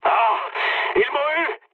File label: aircraft destroyed mood med, speech fr